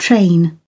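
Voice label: "train", British English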